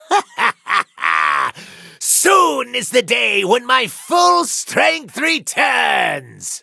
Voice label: levelup en; cookie run

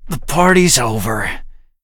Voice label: defeat, en; cookierunwiki

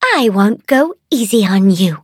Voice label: battle en

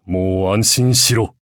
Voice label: levelup, ja; Cookie Run

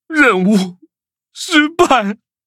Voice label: defeat zh-hant; Cookie Run

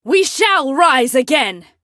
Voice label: defeat, en; Cookie Run

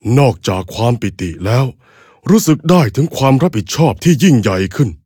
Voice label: levelup, th; cookie run

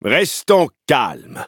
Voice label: battle, fr; cookie run

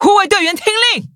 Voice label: loading, zh-hant; cookie run

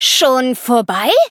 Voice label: victory, de; Cookie Run

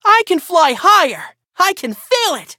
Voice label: levelup, en; Cookie Run

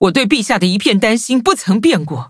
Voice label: loading, zh-hant; cookierunwiki

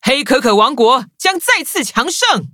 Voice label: loading, zh-hant; cookie run

